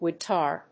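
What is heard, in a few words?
"wood tar", English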